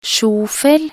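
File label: "sjofel", Norwegian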